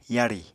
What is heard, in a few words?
"yarì", Apatani